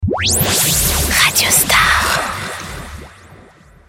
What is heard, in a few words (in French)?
ID SWEEP chuchote female; COMBLAGES RADIO STAR; PLEIN COEUR